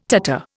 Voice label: "tatter", Indian